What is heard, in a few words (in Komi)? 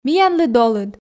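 миянлы долыд, Komi, female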